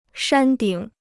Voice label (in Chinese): "山顶", Chinese